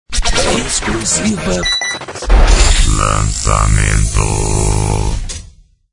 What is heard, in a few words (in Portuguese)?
Exclusiva Lancamento, Vinhetas